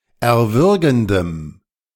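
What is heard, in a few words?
"erwürgendem", German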